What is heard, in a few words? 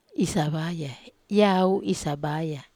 "sabaya", Wamesa